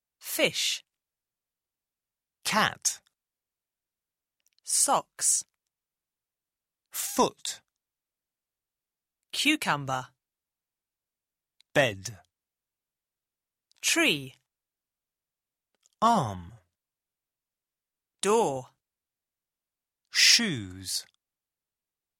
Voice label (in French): voyelles simples en anglais